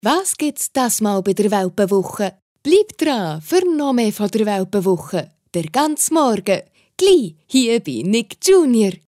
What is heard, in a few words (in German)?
CH-D W Fremdtake Schwiitzerduetsch